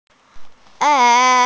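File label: "hei1", Mandarin Chinese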